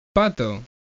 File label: DUCK, spanish european